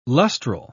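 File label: "lustral", British English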